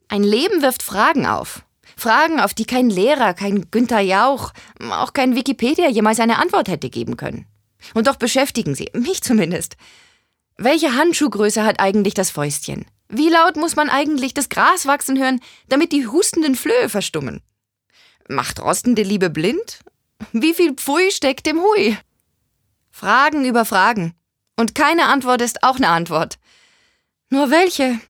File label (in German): Schauspiel Lebendig